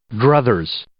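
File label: "druthers", English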